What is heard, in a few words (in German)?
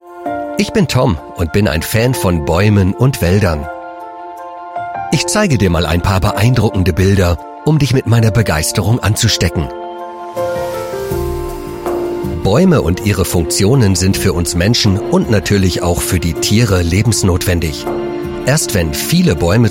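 Kinder Doku Wald jung sympathisch erklaerend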